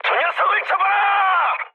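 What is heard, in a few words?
Korean attack position mood high, speech; War Thunder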